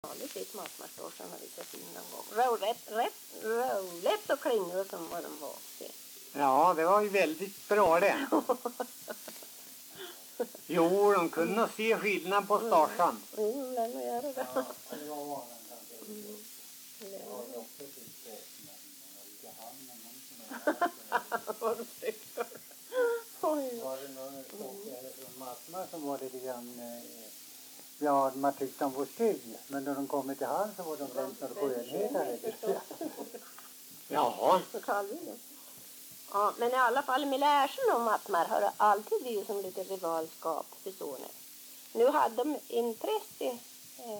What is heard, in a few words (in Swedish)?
dialekter; Folkminnen; Svenska sagor